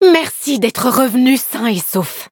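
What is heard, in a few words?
defeat, French; cookie run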